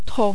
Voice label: "trop", French